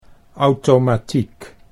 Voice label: "auwtomatiek", Dutch